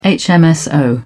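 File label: "hmso", British English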